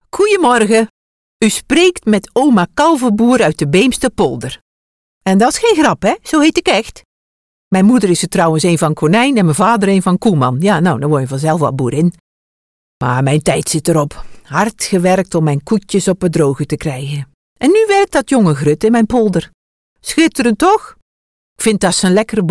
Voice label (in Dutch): Beemsterkaas accent